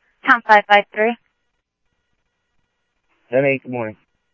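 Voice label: Lakes Sheriff Lake Patrol, scanner audio trunk; MCSO Lakes 1; ThompsonPeakScot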